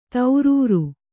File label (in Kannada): "ಧೀರುರೆ", Kannada